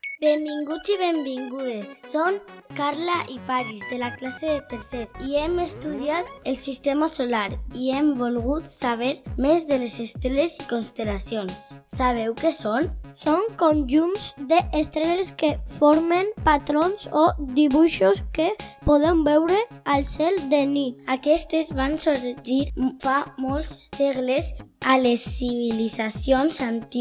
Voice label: RADIO; PUIG CAMPANA